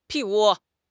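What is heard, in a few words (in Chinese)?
"屁股", Chinese; HSK6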